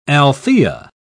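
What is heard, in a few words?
"althaea", British English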